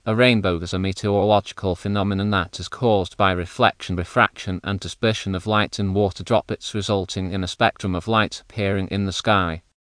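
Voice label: speaker, American English; piper-voices; en GB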